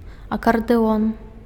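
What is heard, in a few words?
"акардэон", Belarusian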